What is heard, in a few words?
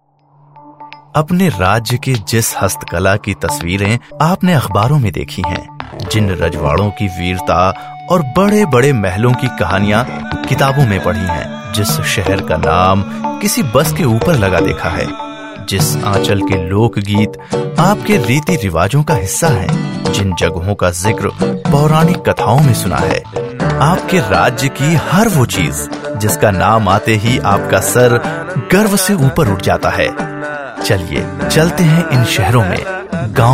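tourism hindi voice over, male voice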